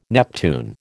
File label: "Neptune", American